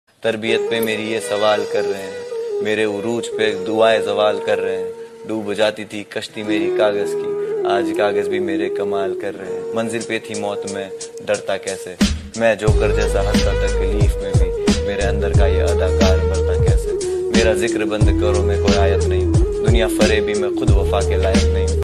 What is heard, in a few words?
Sad Shayari; Munawar Faruqui